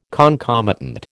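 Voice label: "concomitant", American English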